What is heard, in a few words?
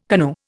"canoe", Indian